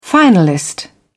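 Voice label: "finalist", British English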